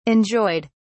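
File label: "enjoyed", British English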